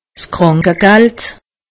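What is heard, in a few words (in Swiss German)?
"krankegeld", Alsatian; medecine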